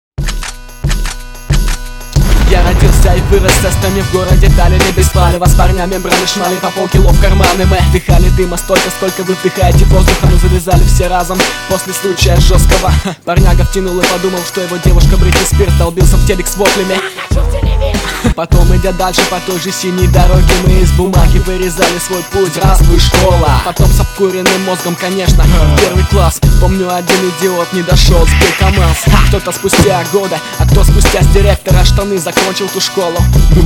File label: battle rap